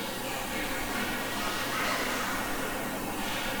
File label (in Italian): Mamma voce di bambino; Abbazia Vangadizza